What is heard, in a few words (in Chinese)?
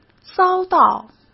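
"遭到", Mandarin Chinese; hsk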